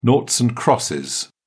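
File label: "noughts and crosses", British English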